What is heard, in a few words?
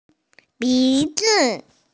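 "bi2zi5", Mandarin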